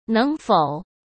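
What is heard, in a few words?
"能否", Mandarin Chinese; hsk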